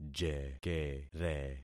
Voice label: "jekere", concat syllables; Affixing experiment